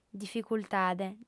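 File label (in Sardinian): "dificultade", female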